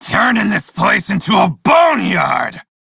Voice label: crew enemy defeat, male; Dialog; Warframe; Railjack Crew; Red Veil